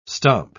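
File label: "stomp", English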